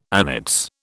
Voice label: "annates", British

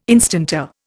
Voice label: "instanter", Indian

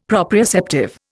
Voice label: "proprioceptive", Indian; Dictionary

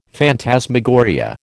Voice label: "phantasmagoria", American

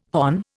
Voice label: "hon", Indian

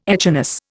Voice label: "echinus", Indian

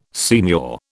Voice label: "signor", British

